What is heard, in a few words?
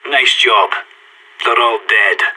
no enemies left, player vo sas